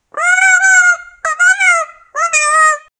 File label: cockroach speaking gibber, gibberish